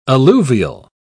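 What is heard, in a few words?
"alluvial", British English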